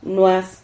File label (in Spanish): "nuas", Silabas